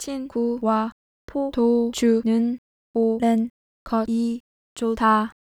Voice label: korean; ekho; haesung